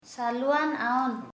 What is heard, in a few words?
"bear clan", Sora